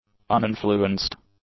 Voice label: "uninfluenced", English; adjectives

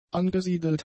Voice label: "angesiedelt", German; adjectives

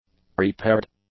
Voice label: "prepared", english; adjectives

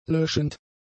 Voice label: "löschend", German; adjectives

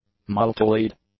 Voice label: "multilead", English; adjectives

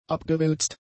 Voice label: "abgewälzt", German; adjectives

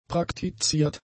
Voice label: "praktiziert", German; adjectives

